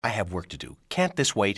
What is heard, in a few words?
i have work, english male; XBOX; civ